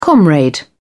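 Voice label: "comrade", English, British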